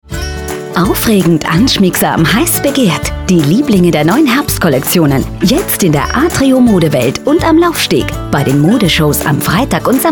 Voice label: slow; Atrio Layout